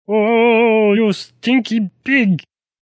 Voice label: Oh You Stinky Pig, Male; Attitude; VoiceBox